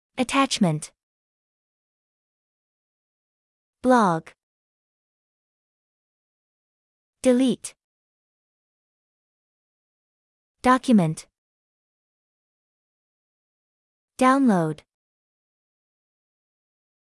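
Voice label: American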